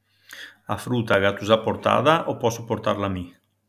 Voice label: Venetan (Treviso); La fruta a gatu sa portada o posso portarla mi?; AlpiLinK

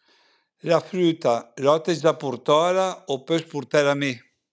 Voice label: Piedmontese (Montà, Province of Cuneo); La früta l’ati z-già purtala o pös purtela me?; AlpiLinK

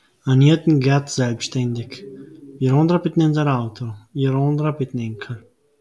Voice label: Mòcheno (Palù del Fersina); An jeden geat selbschtändig: wirondre pit n insere auto irondre pit n enkher; AlpiLinK

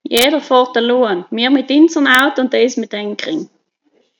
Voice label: Tyrolean (Schlanders, Vinschgau); Jeader fohrt alloan: mir mit inserm auto un es mit enkren; AlpiLinK

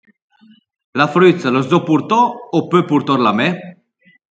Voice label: Occitan (Limone Piemonte, Province of Cuneo); La früto l’as dzo pourtò o pö purtela me?; AlpiLinK

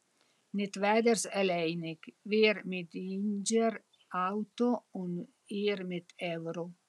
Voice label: Walser German (Formazza, Piedmont); Netweders äleinig: wir mit indscher auto un ir mit ewro; AlpiLinK

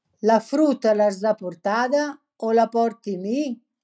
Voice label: Trentino (Predaia, Province of Trento); La fruta l’as sa portada o la porti mi?; AlpiLinK

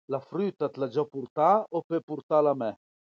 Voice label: Francoprovençal (Balme, Province of Turin); La früta ‘t l’a già purtà o pö purtela me?; AlpiLinK

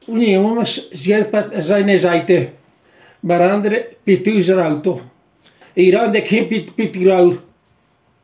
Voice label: Cimbrian (Giazza); Onji uanz iz geat pa saine saite: barandre pit üsar auto, irandre khent pitu aur; AlpiLinK

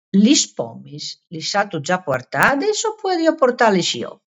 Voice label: Friulian (Tricesimo, Province of Udine); Lis pomis lis atu già portadis o podìo portarlis jo?; AlpiLinK

